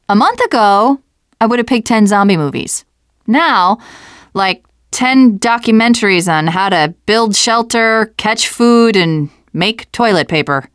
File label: second saferoom, teengirl, voice